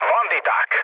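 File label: enemy detected, mood low, Hungarian; War Thunder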